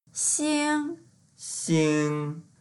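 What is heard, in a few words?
"xing1", Mandarin Chinese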